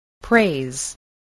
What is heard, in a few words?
"praise", voice, spelling